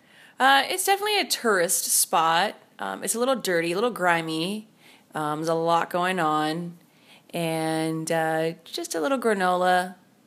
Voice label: Vox Pop